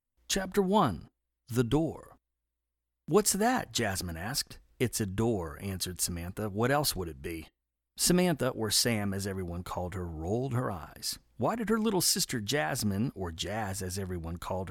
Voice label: Kids-Fiction